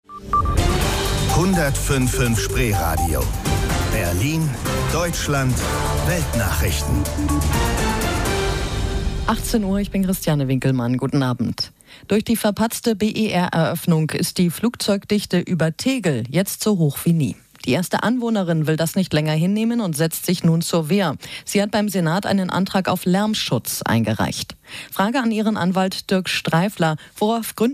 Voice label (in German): News; Spreeradio; News18Uhr